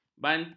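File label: "bantu", Malay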